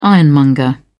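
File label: "ironmonger", British English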